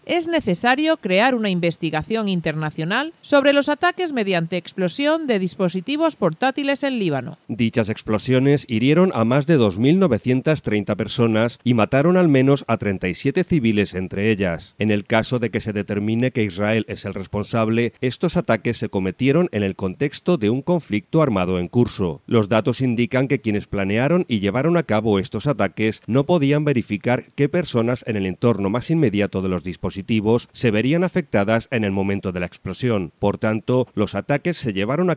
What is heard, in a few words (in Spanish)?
informativo; israel libano explosion dispositivos electronicos